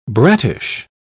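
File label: "brattish", British English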